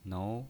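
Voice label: "nou" second tone, pinyin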